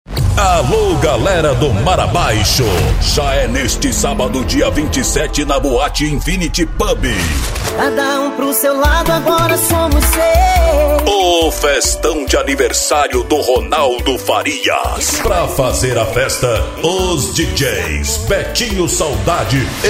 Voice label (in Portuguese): locutor, chamadas; BOATE; INFYNIT